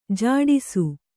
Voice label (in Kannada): "ಝಡಿಸು", Kannada